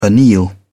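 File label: "anneal", British English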